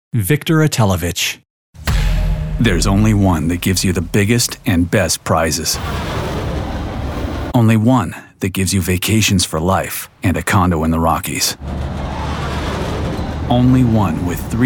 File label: Commercial